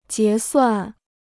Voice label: "结算", Chinese